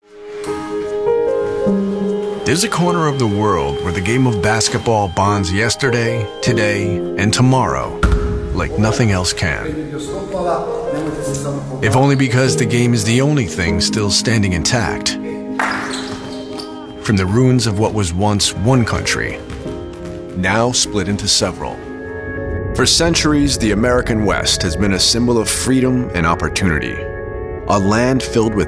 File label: Voiceover, Promos, Network/cable, Men; New York